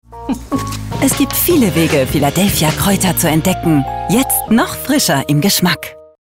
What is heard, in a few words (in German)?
werbung; philadelphia